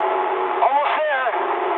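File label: English near waypoint mood medium; War Thunder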